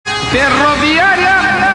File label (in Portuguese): ferroviaria radio